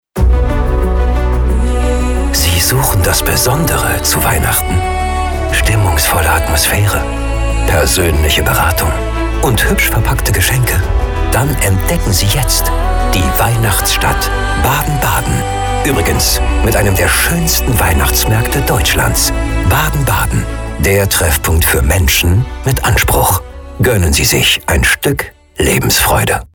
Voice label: Stimmungsvolle Atmosphaere, radiospots weihnachten; Stadt Baden-Baden

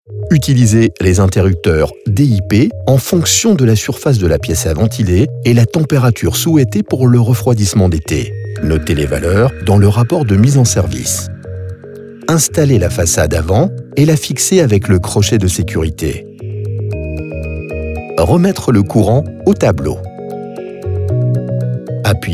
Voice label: Erklaerfilm; Französischer Werbesprecher; french voice-over talent male

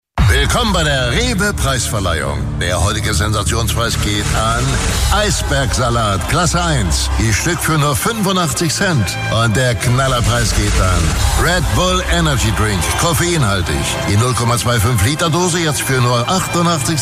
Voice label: Synchronsprecher in der Werbung

